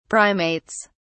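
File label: "primates", British English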